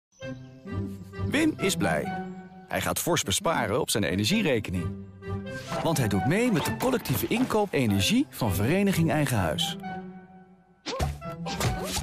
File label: TVC; VEH